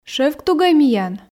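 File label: "шӧвктугӧй миян", female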